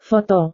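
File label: "foto", Indonesian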